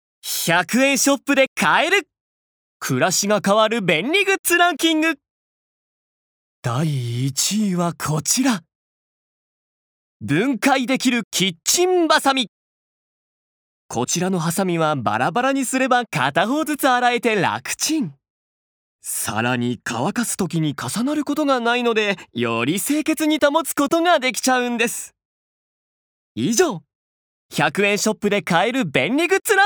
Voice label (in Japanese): ナレーション